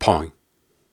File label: Soft Syllables and Imaginary Words, Viking Vocal